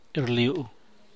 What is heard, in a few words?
"rnabdich", San Mateo Macuilxochitl Zapotec; see; se ve